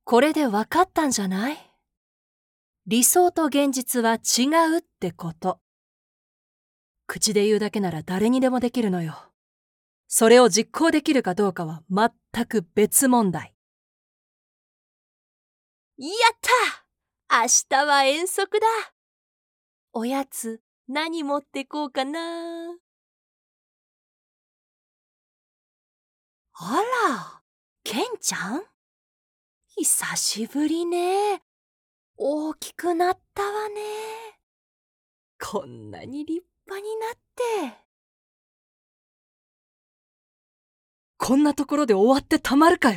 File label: japanese video games